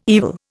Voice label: "evil", Indian

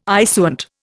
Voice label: "issuant", Indian

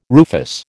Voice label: "rufous", American

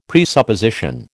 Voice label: "presupposition", American

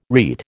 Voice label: "rete", American

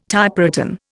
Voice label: "Typewritten", Indian

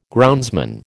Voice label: "groundsman", American

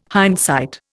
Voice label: "hindsight", Indian

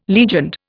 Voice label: "legioned", Indian

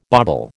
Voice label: "bobble", American

